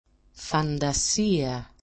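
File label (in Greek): "fandasia", Greek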